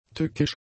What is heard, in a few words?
"tückisch", German; adjectives